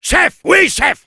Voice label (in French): Soldier yes, fr